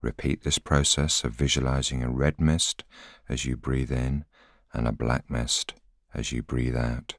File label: voiceover